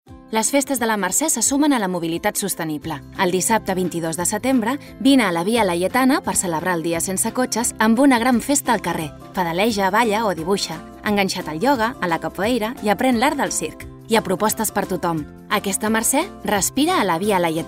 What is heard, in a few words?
female catalan city party comercial; ideasonora